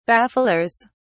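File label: "bafflers", British English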